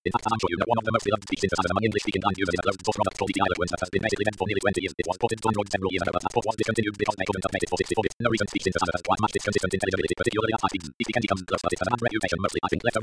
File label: espeak-ng sample